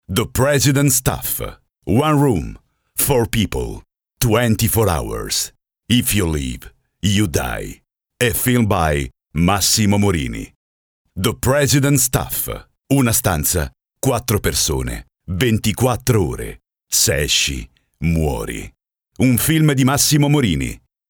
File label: audio spot; usa ita